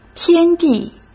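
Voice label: "天地", Mandarin Chinese; hsk; chinese-flashcards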